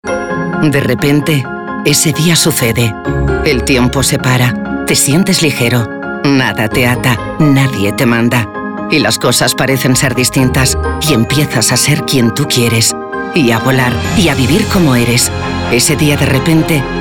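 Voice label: comercial, Spanish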